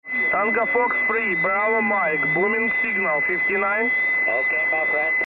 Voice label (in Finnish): puhe hairio 2020Hz